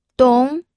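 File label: "dong", pinyin, second tone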